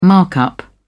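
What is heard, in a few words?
"markup", British English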